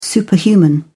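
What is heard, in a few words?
"superhuman", English, British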